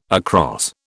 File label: "across", British